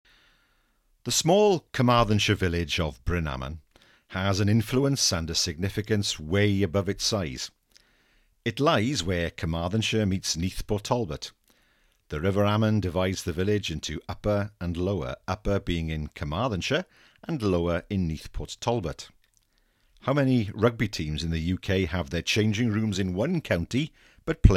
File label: English version